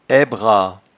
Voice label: "aibra", patois jura